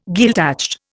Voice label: "Gaeltacht", Indian